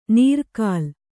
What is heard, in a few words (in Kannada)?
"ನೀರ್ಕಲ್", Kannada